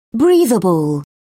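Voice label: "breathable", British English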